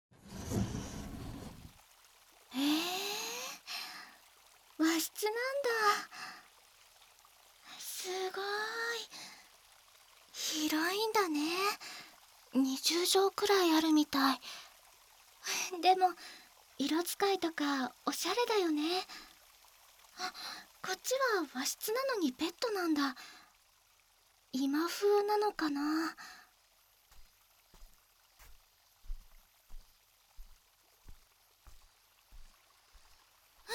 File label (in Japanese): 旅館でママとのんびり耳かき